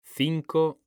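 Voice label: "cinco", Spanish, male; mekanta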